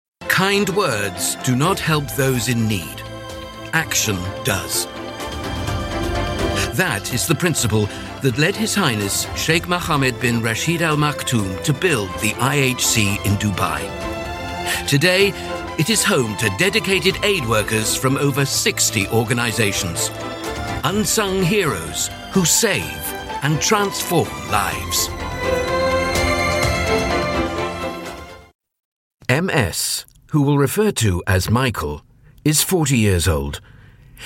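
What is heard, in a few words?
narration, Mature Trustworthy British Voice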